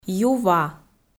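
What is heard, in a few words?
"ю ва", Komi, female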